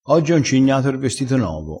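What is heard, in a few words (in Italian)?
"incigna", crespinese dialettale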